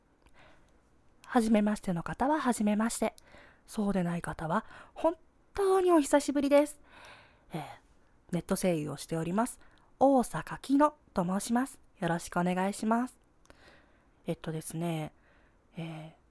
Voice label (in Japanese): トーク